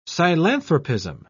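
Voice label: "psilanthropism", British English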